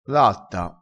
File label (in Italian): "latta", crespinese